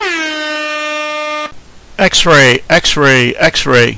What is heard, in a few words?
xray, male English